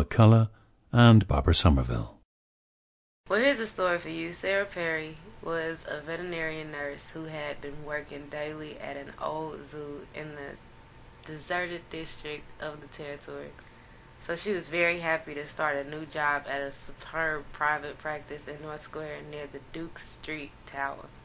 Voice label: missouri, dialects

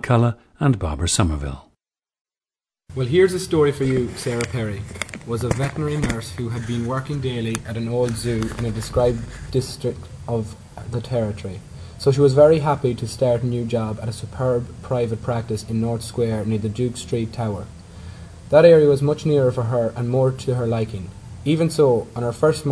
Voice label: ireland, dialects

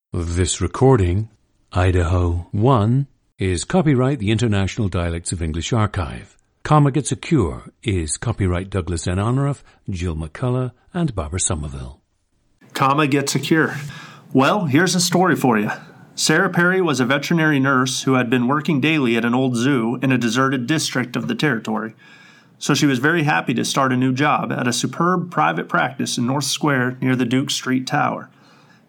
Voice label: Idaho, International Dialects of English; Idaho 1; a 35-year-old man from Nampa, Idaho, United States.

